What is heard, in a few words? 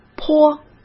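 "坡", Mandarin Chinese; hsk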